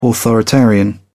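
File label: "authoritarian", British English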